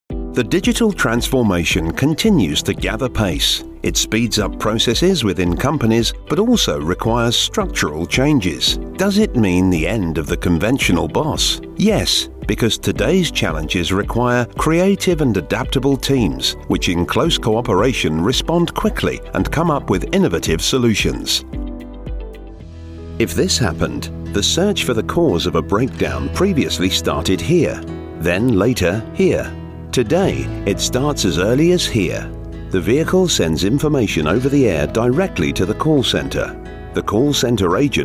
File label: Voiceovers UK Showreel